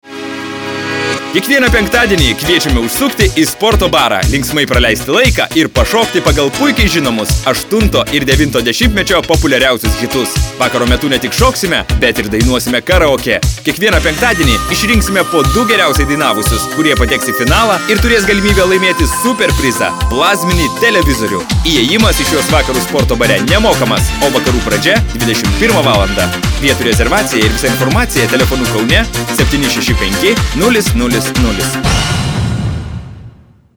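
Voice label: lithuanian male commercial; voice demos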